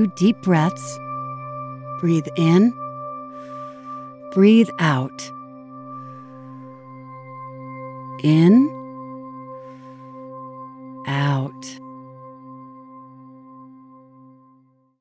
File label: meditation